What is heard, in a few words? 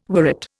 "worrit", Indian